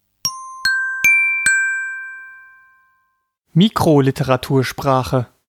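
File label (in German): "Mikroliteratursprache", German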